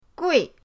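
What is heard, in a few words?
"gui", Mandarin pinyin, fourth tone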